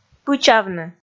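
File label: "пычавны", Komi, female; kpv audio dict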